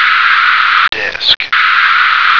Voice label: "disk", American